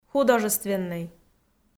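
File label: "художественнӧй", Komi, female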